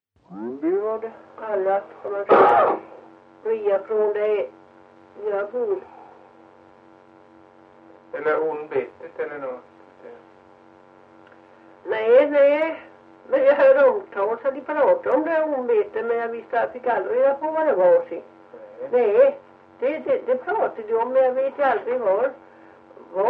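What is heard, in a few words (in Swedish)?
Ventlinge Öland, Svenska sagor, dialekter; Folkminnen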